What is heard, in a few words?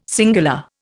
"singular", Indian